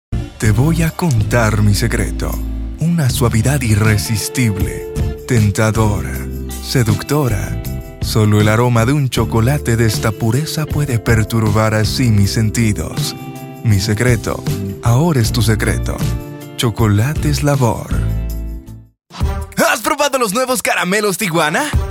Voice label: spanish commercial